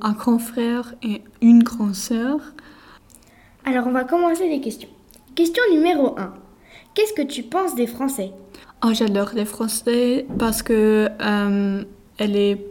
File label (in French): reportage allemagne interview